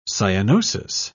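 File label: "cyanosis", British English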